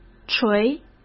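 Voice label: "垂", Mandarin Chinese; chinese-flashcards; hsk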